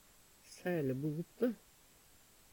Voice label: Sælebote, Numedalsmål

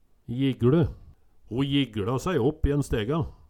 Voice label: jigLe, Numedalsmål

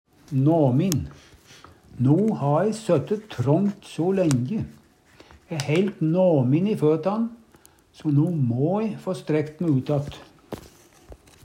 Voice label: namin, Numedalsmål; No ha e søtte trångt so lenje, æ heilt nåmin i føtan, so no må e få strekt me ut att.

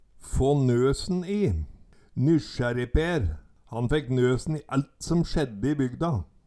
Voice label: få nøsen i, Numedalsmål

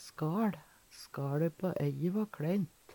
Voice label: "skal", Numedalsmål; Substantiv inkjekjønn; Veggli

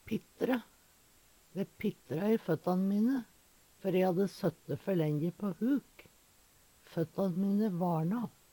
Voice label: pittre, Numedalsmål; Eksempel på bruk Dæ pittra i føttan mine før e hadde søtte før lenje på huk. Føttan hadde vaLna bort.

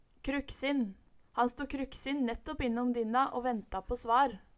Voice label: kruksin, Numedalsmål

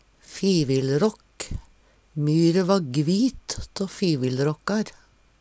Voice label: fivilrakk, Numedalsmål; Myre va gvit tå fivilråkkar.; Substantiv hankjønn; Planteriket; Fivildåkk (Veggli)

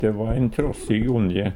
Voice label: "tråssig", Numedalsmål; Adjektiv

